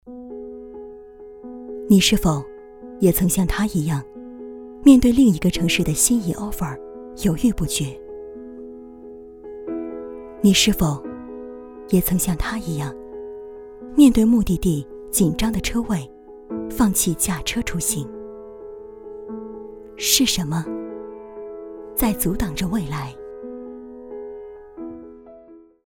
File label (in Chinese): 女 品牌广告; 权易宝